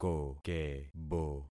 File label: "kokebo", concat syllables; Affixing experiment